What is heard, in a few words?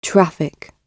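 "traffic" spoken, British English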